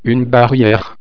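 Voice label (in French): "barriere", French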